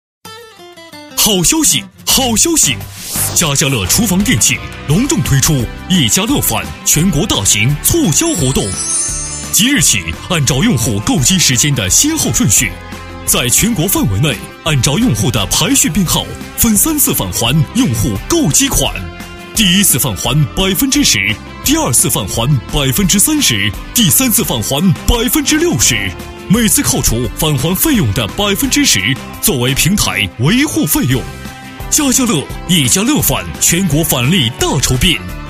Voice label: 男 促销 好消息, 国语男声配音; 大气专题; 家家乐

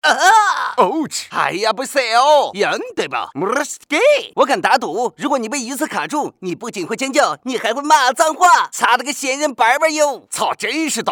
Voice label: 男 网络段子; 飞碟说

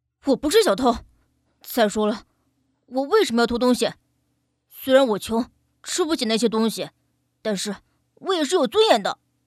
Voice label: 女 正太

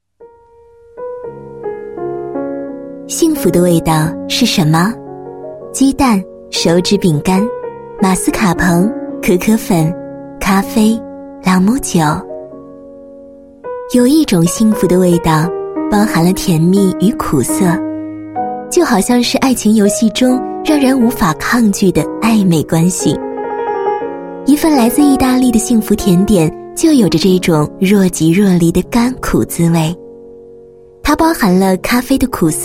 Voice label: 女19 解说 优雅 自然, 国语女声配音; 甜品 提拉米苏

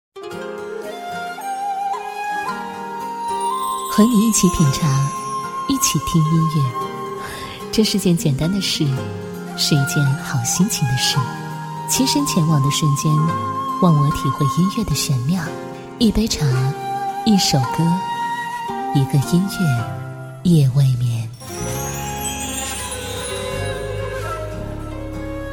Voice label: 女4号 栏目包装, 国语女声配音

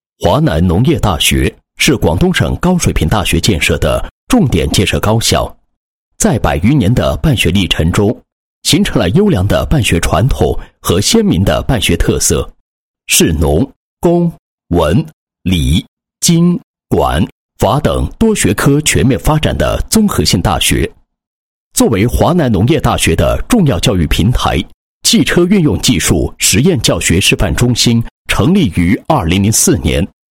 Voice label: 男 学校 多样性综合性大学

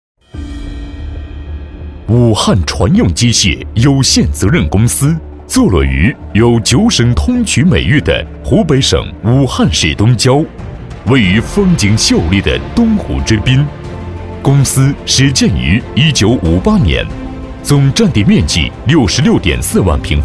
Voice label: 男 专题 大气专题, 浑厚; 武汉船用机械

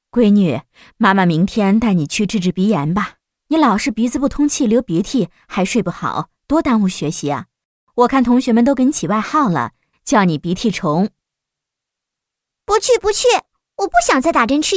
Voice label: 女 角色 妈妈和女儿, 国语女声配音

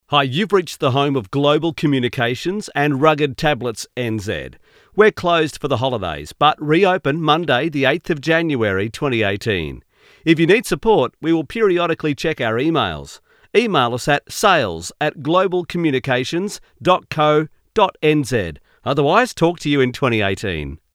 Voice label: 外籍英男, 放假公告

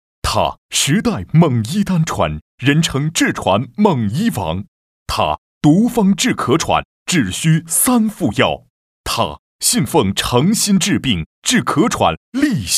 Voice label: 男 大气浑厚, 国语男声配音; 七味沙参汤散

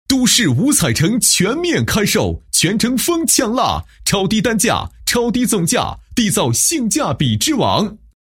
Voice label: 男 房地产广告; 都市; 五彩城全面开售广告

